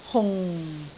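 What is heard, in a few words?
"hung4", Cantonese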